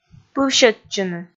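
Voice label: "пывсьӧдчыны", Komi, female; kpv audio dict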